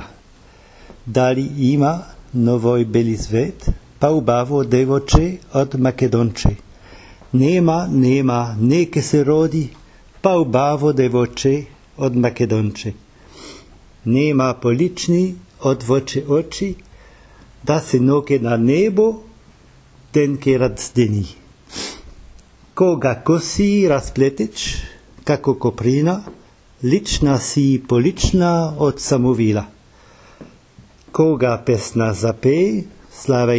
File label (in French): makedonsko prononciation